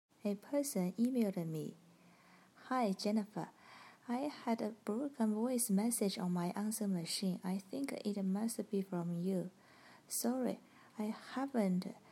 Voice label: a broken voice message; humor

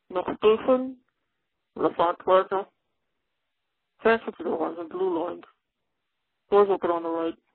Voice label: metro announcement

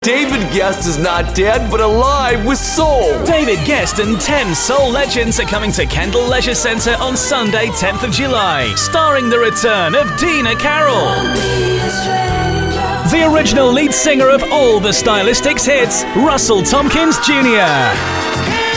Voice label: radio ad

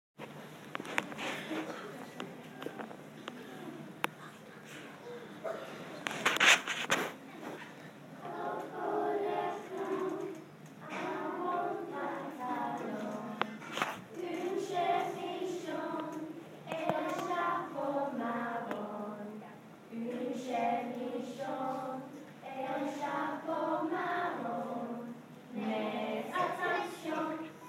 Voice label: french pronunciation

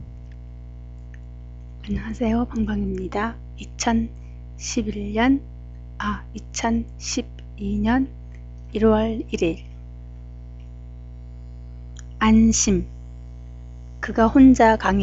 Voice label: Korean dictionary sample sentences

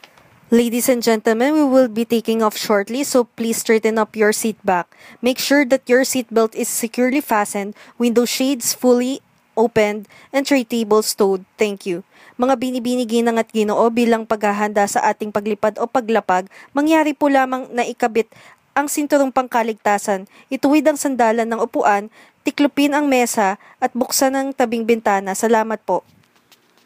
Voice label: airplane announcements

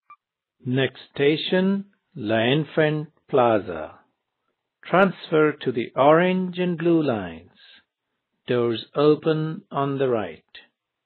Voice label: metro announcement; someone needs coffee

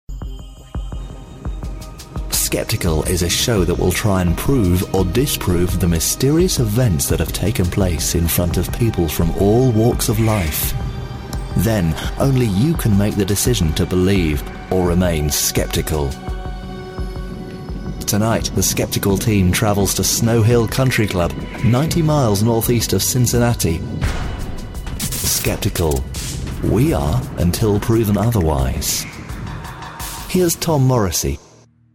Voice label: corporate voice over

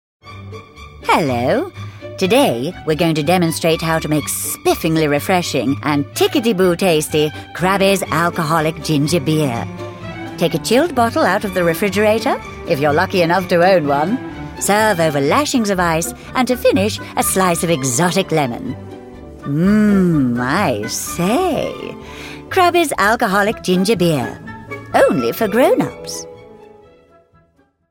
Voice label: advert; crabbies

